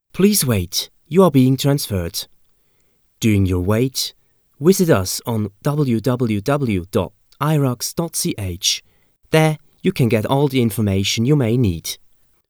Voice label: English